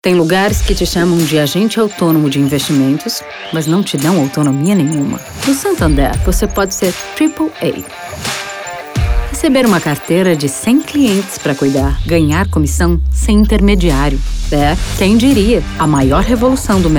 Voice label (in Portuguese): Repertorio COOL DESCOLADA